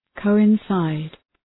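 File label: "coincide", English; {,kəʋın’saıd}; Ρήμα; συμπίπτω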